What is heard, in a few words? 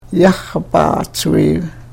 karuk